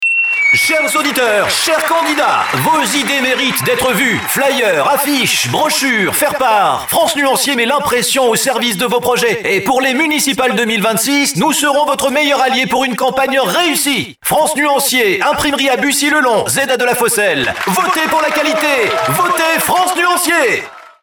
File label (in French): Voix Off Mégaphone, Radio; France Nuancier